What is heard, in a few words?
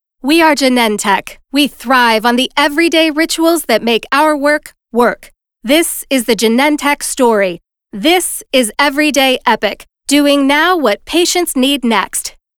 spot vo only; Genentec